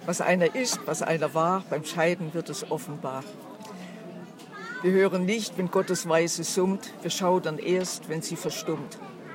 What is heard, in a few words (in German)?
Dialekte; GHV Dialekte 2018